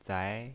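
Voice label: "zai" Mandarin Chinese pinyin voice, second tone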